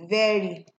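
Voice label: "very", Nigerian Pidgin, female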